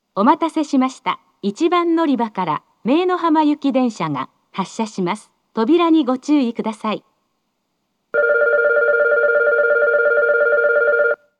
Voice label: 発車-姪浜行, 駅放送; 福岡市地下鉄 空港線 福岡空港駅

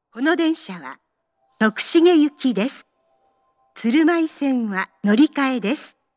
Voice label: 到着-徳重行き, 名古屋市営地下鉄 桜通線 丸の内駅 駅放送

